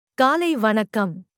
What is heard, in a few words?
Tamil Good morning; Greetings